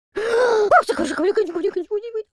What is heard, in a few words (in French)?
pray, French speech